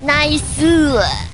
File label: cheer